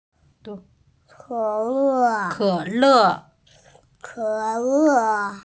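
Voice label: "ke3le4", Mandarin Chinese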